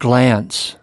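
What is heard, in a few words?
"glance", US English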